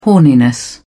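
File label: "horniness", British English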